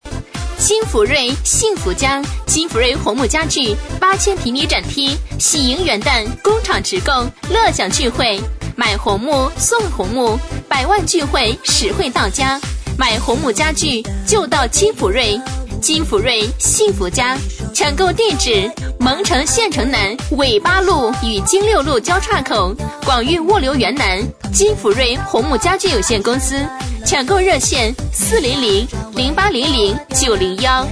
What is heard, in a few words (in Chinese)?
女声; 【喜迎元旦】 金福瑞红木家具